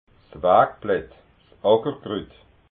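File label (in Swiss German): "wagblatt", Alsatian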